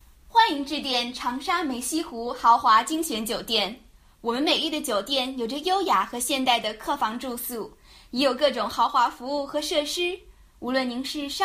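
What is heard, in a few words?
Chinese telephony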